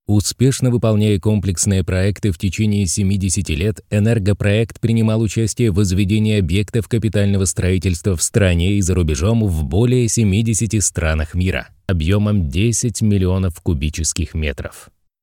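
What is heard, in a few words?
Russian Male Promotion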